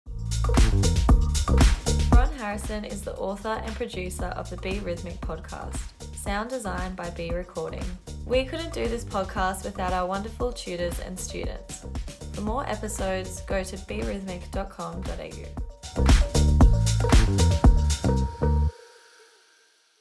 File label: Podcast Outro; The BRHYTHMIC